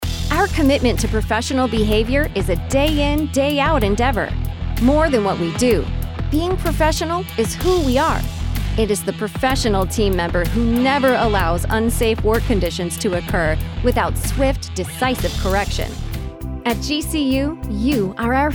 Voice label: corporate narration